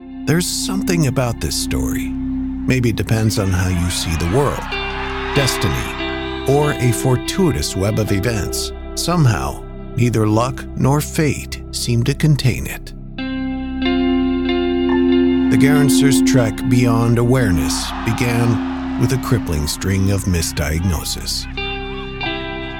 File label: Documentary